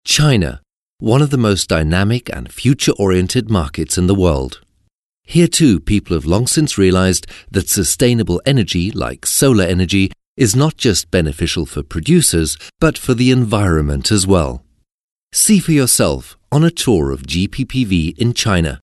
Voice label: corporate